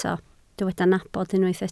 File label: "nabod", Welsh